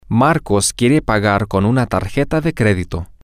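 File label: wants to pay with a credit card, Spanish, female; Marcos wants to pay with a credit card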